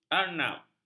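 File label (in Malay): "arnab", Malay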